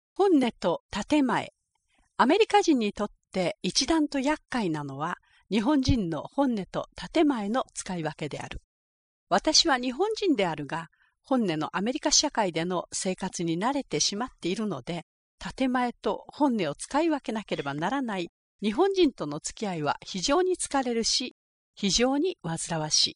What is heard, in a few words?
Japanese